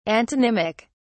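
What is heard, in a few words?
"antonymic", British English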